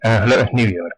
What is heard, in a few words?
new year, west flemish